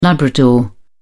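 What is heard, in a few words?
"labrador", British English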